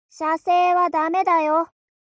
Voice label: mob 女子 台詞

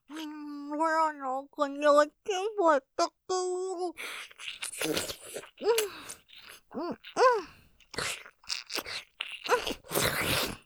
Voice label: 射精スパート◆喉の奥におちんぽ入れてぇ◆おねだり; ループで楽しむ！シェフの気まぐれ抜き抜きコース; モノラル

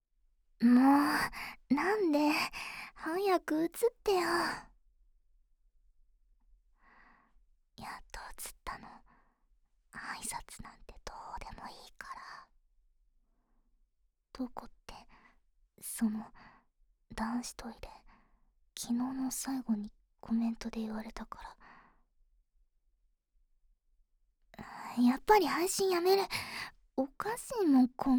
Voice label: モノラル; 原作CG集忠実版音声; 自宅・学校編

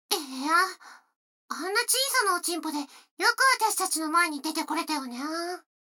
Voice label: 女の子台詞, ASMR

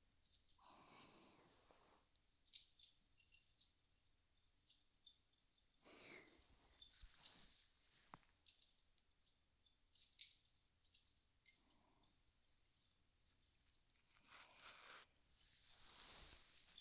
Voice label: 左耳かき; 炭火と耳かき

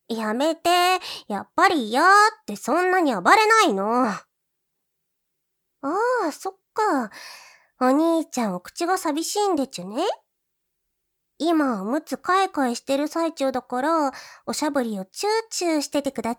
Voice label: 女装おむつはきはき編, ASMR

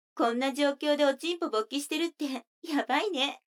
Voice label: 女の子 台詞

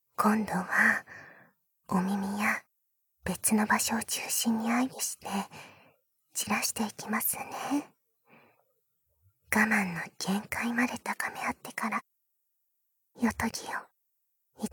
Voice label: 耳指攻め囁き焦らし（囁き重視編集 効果音あり大きめ）, ASMR; 効果音大差分

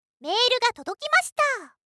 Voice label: システムボイス

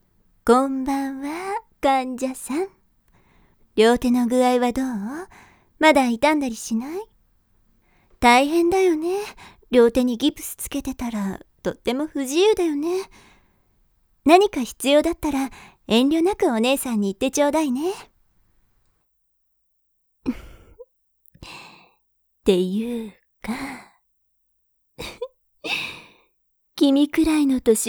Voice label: ナースさんの耳舐め囁き; おちゃめなナースさんの耳舐め囁き手コキ編R

